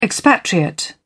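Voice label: "expatriate", British English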